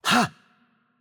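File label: Hungarian attack light voice; bloom minigame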